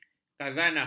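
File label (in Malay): "khazanah", Malay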